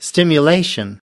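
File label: "stimulation", American English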